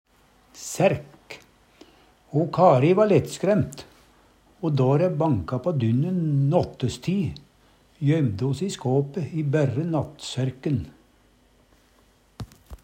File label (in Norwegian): "saerk", Numedalsmål